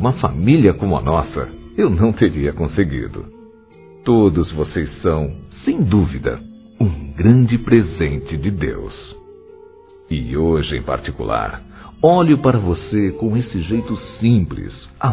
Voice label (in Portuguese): Aniversário Neta Masculino